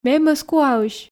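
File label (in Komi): ме мӧскуаысь, Komi, female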